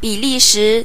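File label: belgium, Spanish; gcompris-data; geography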